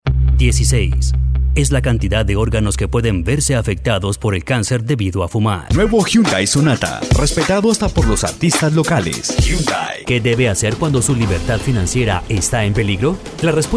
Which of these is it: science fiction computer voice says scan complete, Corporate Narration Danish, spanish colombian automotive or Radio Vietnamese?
spanish colombian automotive